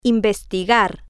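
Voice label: "investigar", Spanish, female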